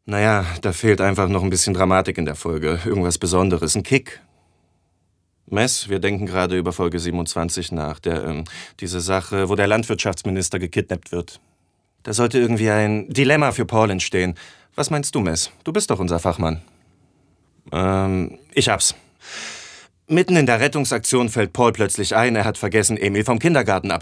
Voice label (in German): Synchron; Teamleiter - kalkulierend